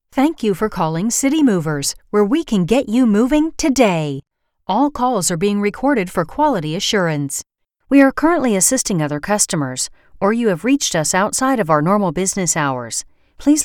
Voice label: phone on hold